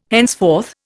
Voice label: "henceforth", Indian